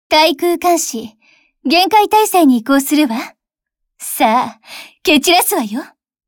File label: Speech Consumable AAC Ready; Atago; wows